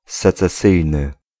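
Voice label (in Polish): "secesyjny", Polish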